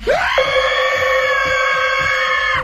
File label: robotic, Voice; ooh ahh